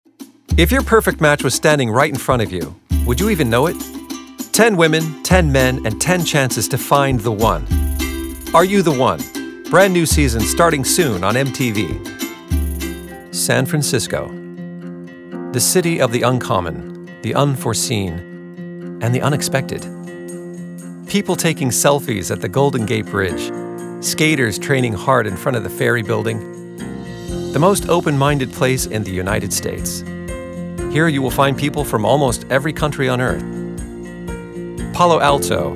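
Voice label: Documentary Reel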